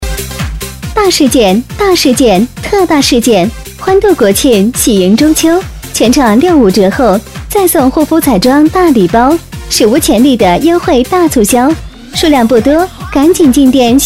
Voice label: 【促销】欢度国庆

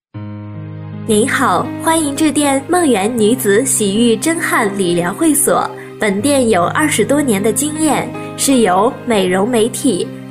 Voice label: 彩铃; 梦圆女子洗浴汗蒸理疗会所

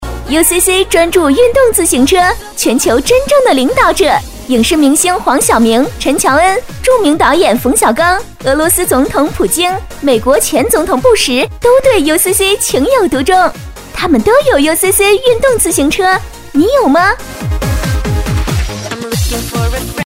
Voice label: 促销; 运动自行车

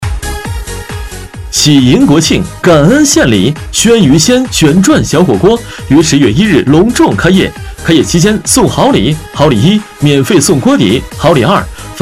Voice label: 促销 鲜火锅

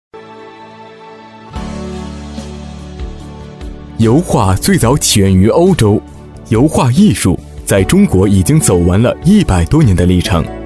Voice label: 解说 历史; 全山石

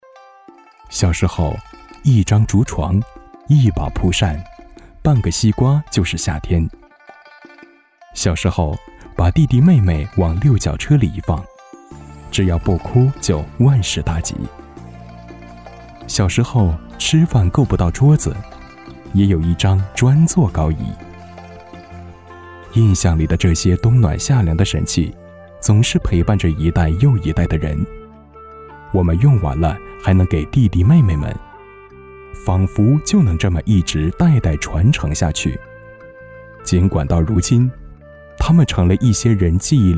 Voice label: 微电影旁白; 彩云之南

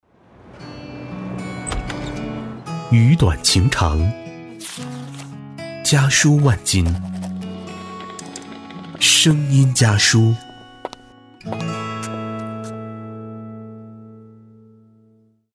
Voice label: 广告 有声书信

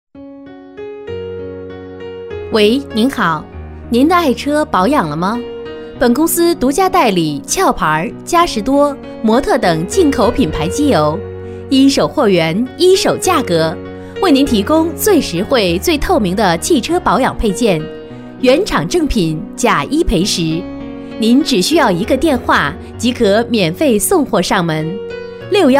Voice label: 彩铃 您的爱车保养了吗

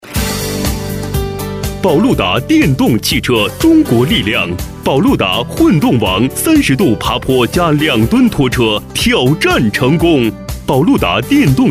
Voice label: 促销 电动汽车

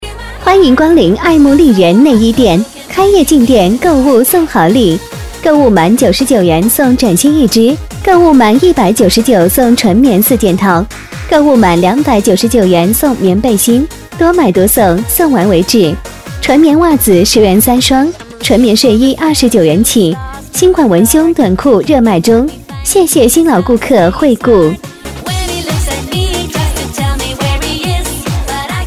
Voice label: 广告 内衣店; 爱慕丽人内衣店

